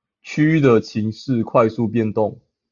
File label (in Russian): Голоса, Тайваньский